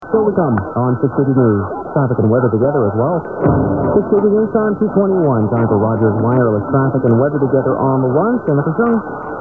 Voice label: news ids only

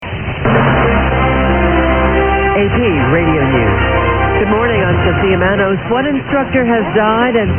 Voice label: news ap radio news; wbbr

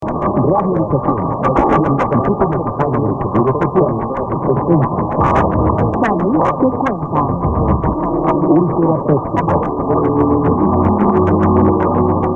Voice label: radio argentina id only; 1060 radio restaursion

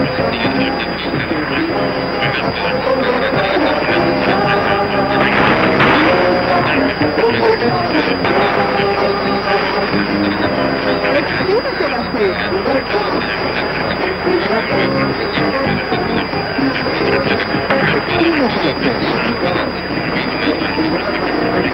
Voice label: radio anzoategui venezula

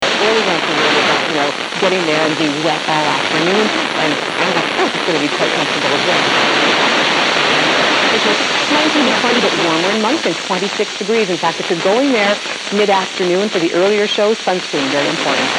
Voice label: canadian tv audio great wx

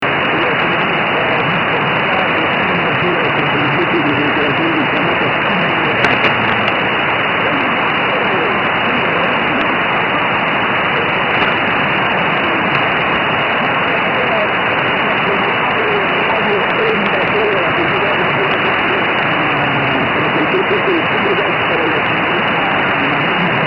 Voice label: radio; radio onda zero

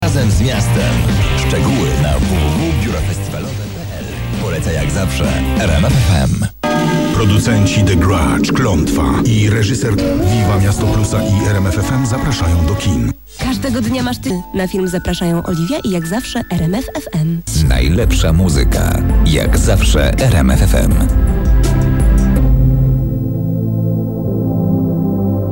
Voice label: rmf fm poland ids only

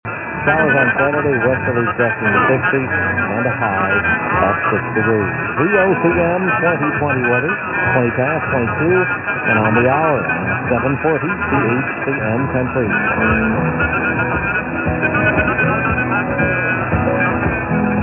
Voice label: 740 local id, mw dxing; chcm

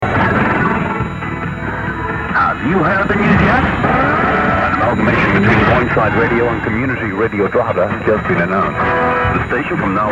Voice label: boyneside merger ann only 1305khz; boyneside crd

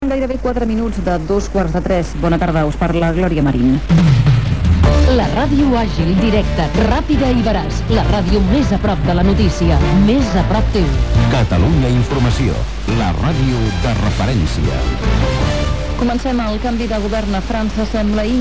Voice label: catalunya informatcio radio

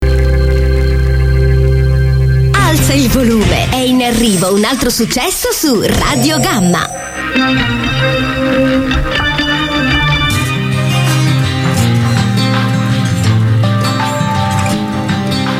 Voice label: radio; radio gama italy

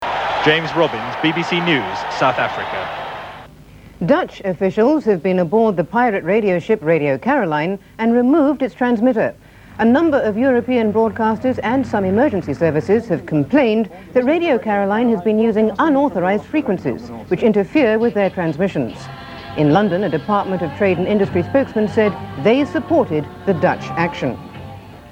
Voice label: bbc1 news tv audio

